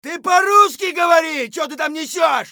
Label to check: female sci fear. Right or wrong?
Wrong